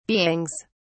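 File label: "beings", British English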